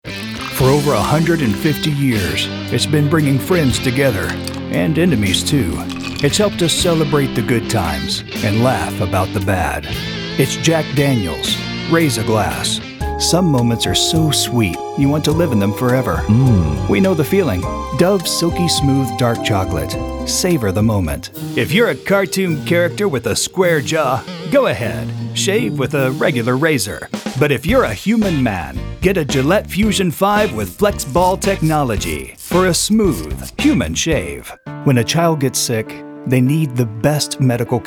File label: Commercial Demo